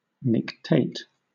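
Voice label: "nictate", British English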